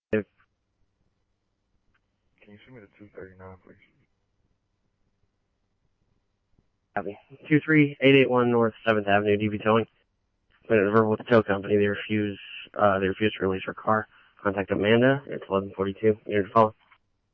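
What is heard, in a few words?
scanner, Phoenix Police Department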